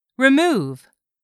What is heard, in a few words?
"REMOVE", English; 3000 words